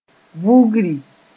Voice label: "vugri", moore

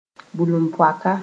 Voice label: "bulum-poaka", moore

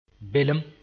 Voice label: "belem", moore

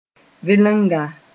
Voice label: "vɩlenga", moore

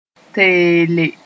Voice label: "teele", moore

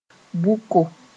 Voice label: "bʋko", Moore; unhappy

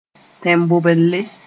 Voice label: "tẽn-bobelle", Moore

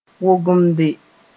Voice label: "wogemde", moore

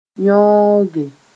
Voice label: "yõoge", moore

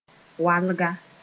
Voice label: "walga", Mooré

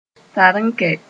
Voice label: "tarenkɛ", moore

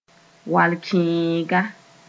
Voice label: "wal-kẽenga", Mooré

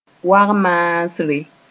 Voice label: "wag-maasre", Mooré; snake sp

